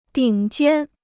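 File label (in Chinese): "顶尖" Chinese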